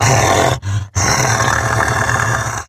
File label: Pain Grineer Wolf, en; Battle Barks